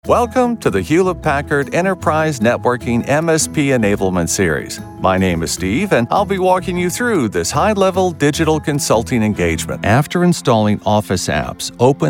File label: eLearning